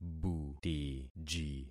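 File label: "butiji", concatenated syllables; Affixing experiment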